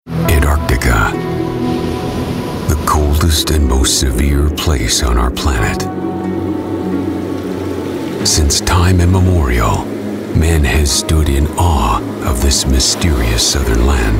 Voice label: voiceover examples